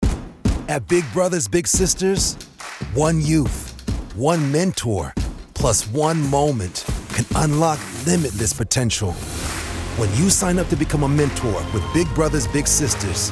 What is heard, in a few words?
Recruitment Radio Spot